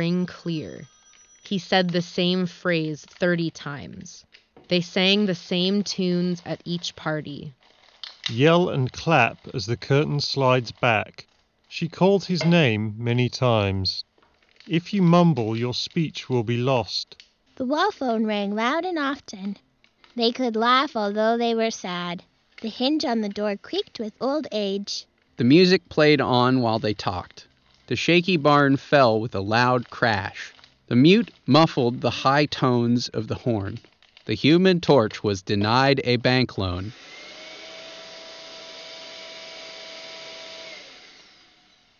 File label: Office microphone sample; Corsair HS70 Pro Wireless